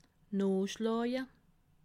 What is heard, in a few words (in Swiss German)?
"noochsschlawe", alsace